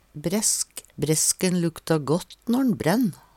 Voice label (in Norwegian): bresk, Numedalsmål; Substantiv hankjønn; Planteriket